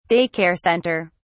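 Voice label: "day care center", English, British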